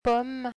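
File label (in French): "pomme", French; ɔ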